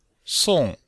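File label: "song4", Chinese; Cours de Chinois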